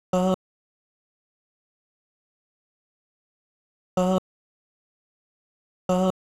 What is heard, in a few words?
125 F Vox Male Good Bye